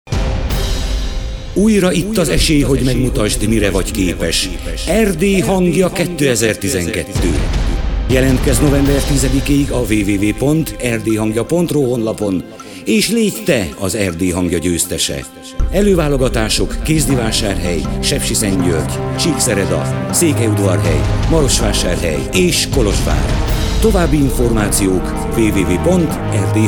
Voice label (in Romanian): radioreklam CINEMATIC Version; Erdely Hangja 2012